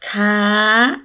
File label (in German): kaa hoher ton, thai